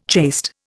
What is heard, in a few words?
"chaste", Indian